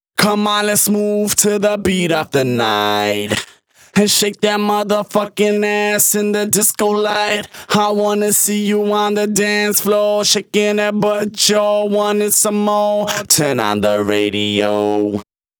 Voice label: Rap Let's Move Vocals DRY 128 BPM, Vocal-Hook Kits; Vengeance Samples; Song Kit